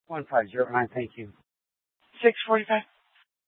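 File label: Mesa Police Department Superstition Dispatch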